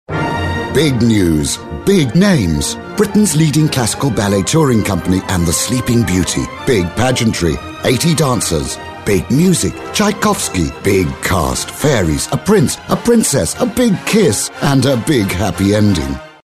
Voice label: high energy clean